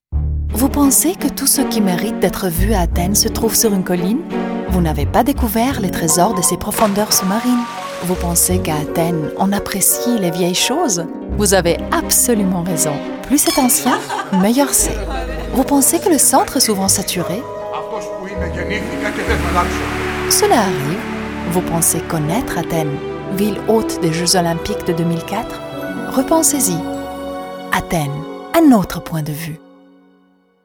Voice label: French; EOT